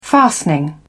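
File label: "fastening", British English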